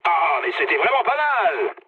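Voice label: French trick mood med; War Thunder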